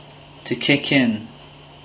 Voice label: "to kick in", English